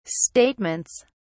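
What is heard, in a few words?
"statements", British English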